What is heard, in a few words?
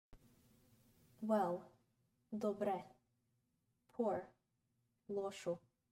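Well poor, Bulgarian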